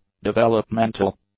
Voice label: "developmental", english; adjectives